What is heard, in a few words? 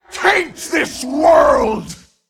Female Tolvir Attack